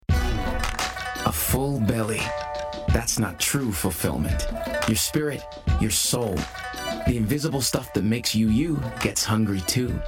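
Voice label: Commercial, Male, Assured, Blokey, Bright, Conversational, Friendly, Warm; Wagamamas; United Voices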